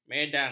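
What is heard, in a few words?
"medang", Malay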